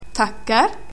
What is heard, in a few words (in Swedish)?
"tackar", swedish, Greetings